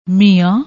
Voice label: "meeaan", Punjabi